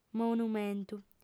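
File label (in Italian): "monumentu", lingua sarda, fem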